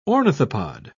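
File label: "ornithopod", British English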